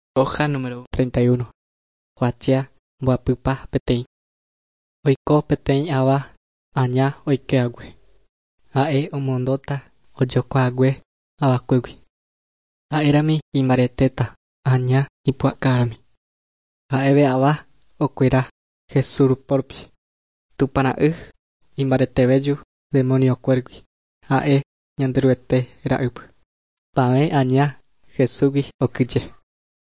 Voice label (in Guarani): guarani mbya; good news and words of life; bible